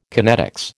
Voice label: "kinetics", American

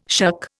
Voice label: "shuck", Indian

